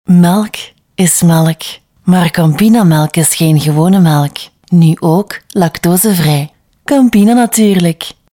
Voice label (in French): VOIX FEM Adulte Neerlandaise